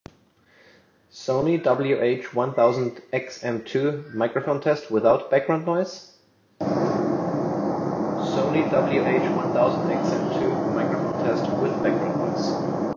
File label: Sony WH-1000XM2